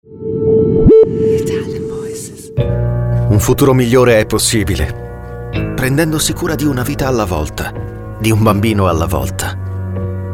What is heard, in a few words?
ITALIAN VOICES Intense Inspiring, male voices